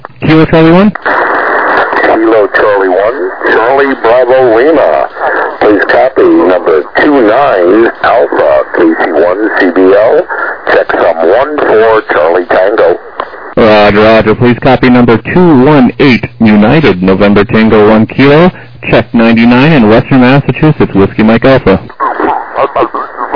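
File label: lower sideband 7MHz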